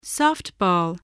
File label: "softball", English voice